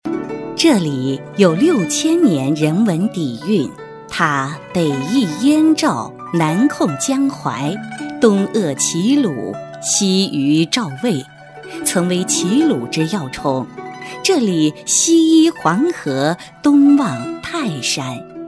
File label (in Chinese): 女 旅游区宣传样, 国语女声配音; 全能配音